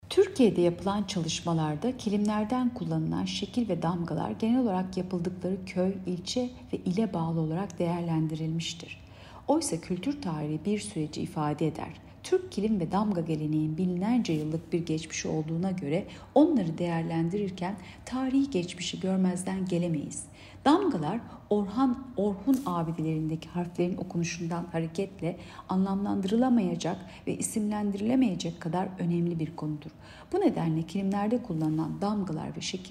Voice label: turkce